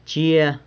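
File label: "chia", San Lucas Quiaviní Zapotec; will go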